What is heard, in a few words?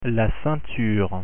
"ceinture", French pronunciation